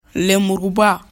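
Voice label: "lemuruba", Bambara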